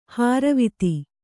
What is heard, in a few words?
"ಹಾರುವಿತ್ತಿ", Kannada